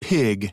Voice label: slow pig, American; American IPA phonic